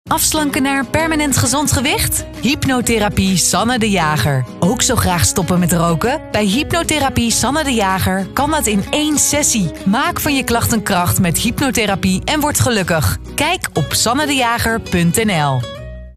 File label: Commercial; RTV Oost